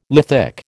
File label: "lithic", American